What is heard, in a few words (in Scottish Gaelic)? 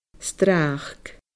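"stràc", Scottish Gaelic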